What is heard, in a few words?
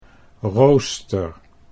"rooster", Dutch